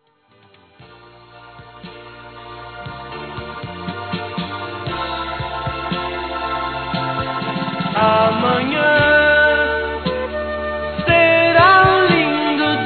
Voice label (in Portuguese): promete que vai me desculpar, masc; Telemensagens 2017